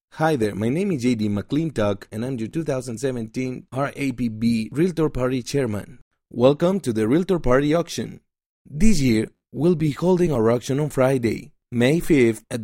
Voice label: spanish latin american neutral podcasts